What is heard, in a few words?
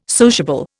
"sociable", Indian